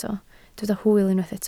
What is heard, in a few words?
"hwyl", Welsh